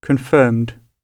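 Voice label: "confirmed", British English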